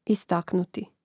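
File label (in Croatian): "istaknuti", hrvatski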